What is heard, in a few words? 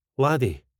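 "laddie", Scottish English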